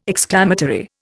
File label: "exclamatory", Indian